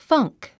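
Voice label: "funk", English word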